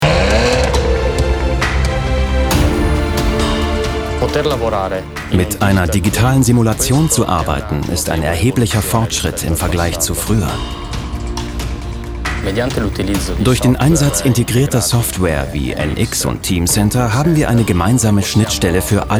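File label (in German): commentary, Neutral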